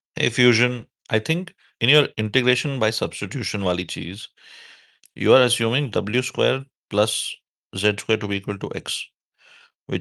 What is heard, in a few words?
voice message